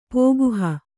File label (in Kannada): "ಪೋಗುಹ", Kannada